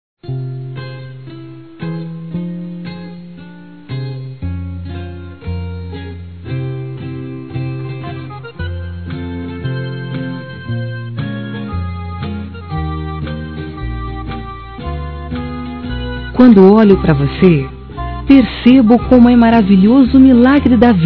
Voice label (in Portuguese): Aniversário Neto Feminino; Aniversários; Aniv Familiar